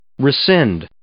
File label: "rescind", English